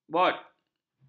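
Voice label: "bot", Malay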